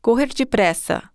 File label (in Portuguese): portuguese run fast; samsung YP-U5; Audio User Interface